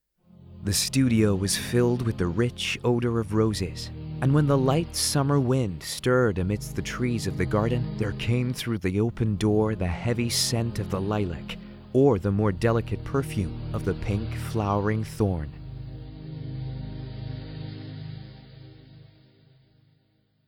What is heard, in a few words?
Audiobook US